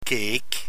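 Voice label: "keek", Dutch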